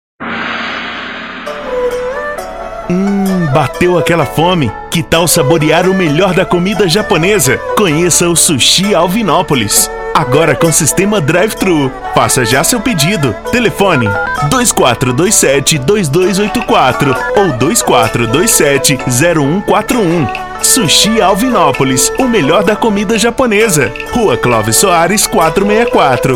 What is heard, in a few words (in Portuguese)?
comercial; sushi alvinopolis